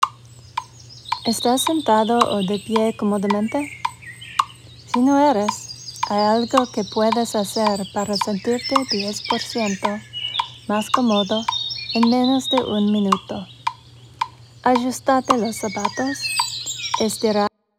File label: Compassion English